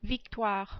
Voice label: "Victoire", French